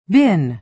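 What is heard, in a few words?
"bin", American English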